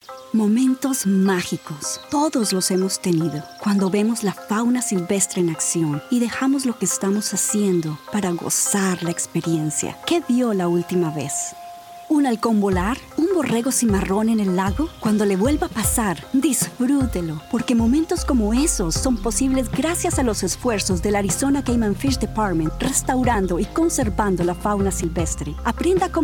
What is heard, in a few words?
Commercial, Female voices